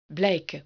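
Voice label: "blijken", Dutch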